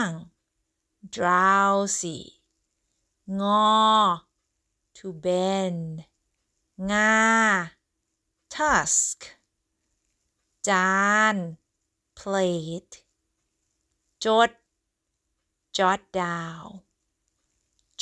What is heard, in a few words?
Learning Thai words